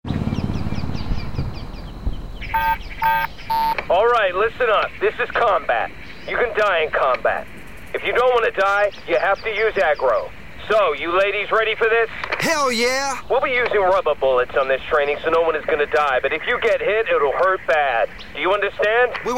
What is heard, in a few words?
American